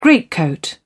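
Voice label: "greatcoat", British English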